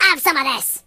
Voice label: Fire punch, Pirate; hedgewars